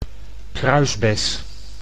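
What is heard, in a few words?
"kruisbes", Dutch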